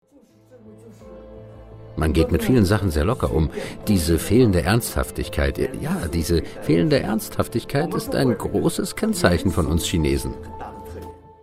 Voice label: voiceover

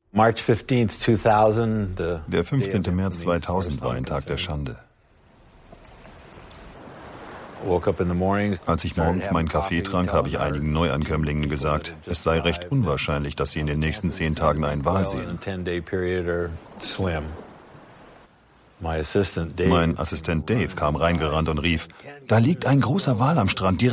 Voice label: voiceover

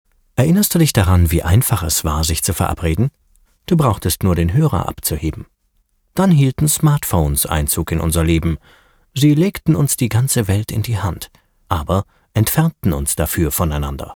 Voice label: conversational

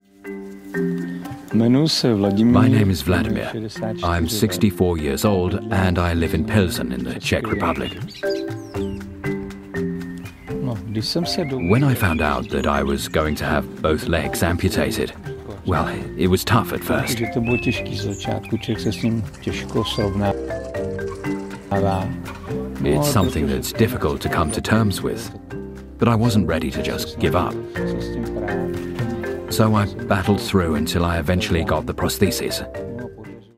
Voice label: overvoicing older man

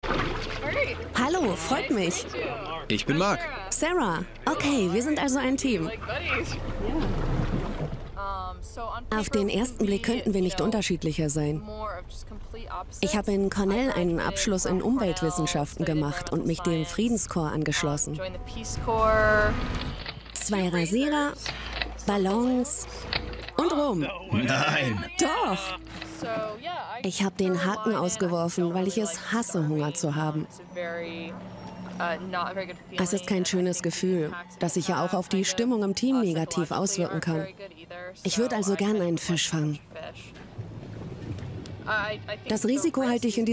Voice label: voiceover; the raft 2015

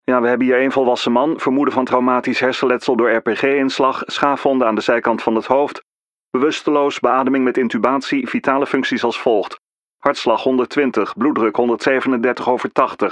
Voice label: nl character vo ambulance phone

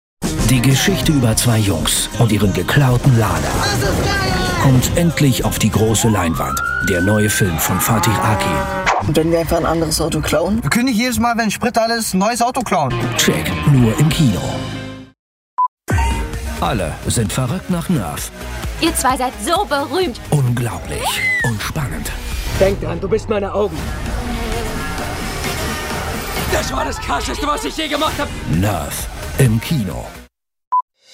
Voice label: trailer; airplay

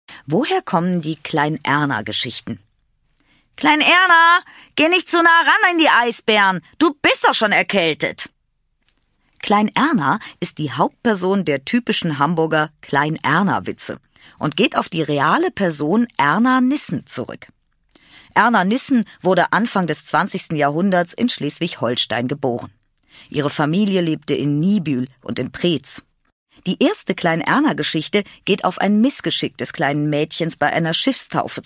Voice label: audio guide; klein erna

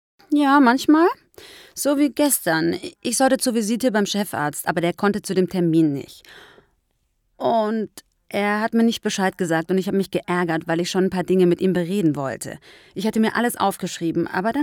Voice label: voice over; icf inventiv

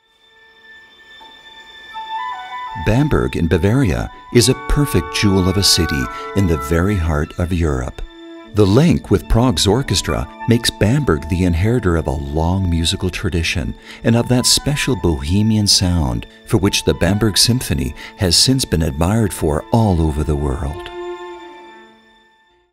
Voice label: symphony orchestra doku eng; bamberg

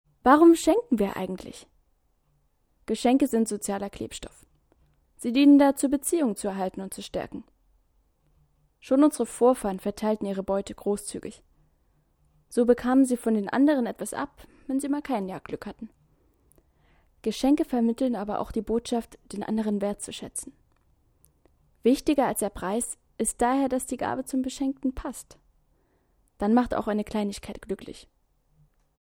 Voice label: voice over

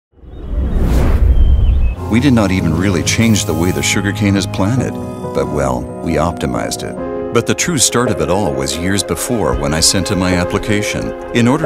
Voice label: imagefilm eng; basf

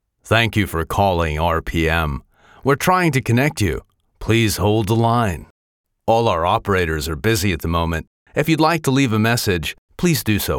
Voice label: telephone

